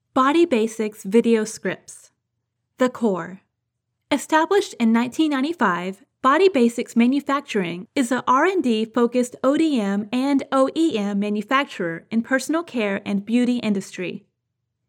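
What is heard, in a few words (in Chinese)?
外籍女英_外语_美式英语_宣传片_稳重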